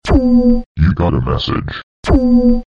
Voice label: have a message robotic tone ringtone, sms ringtones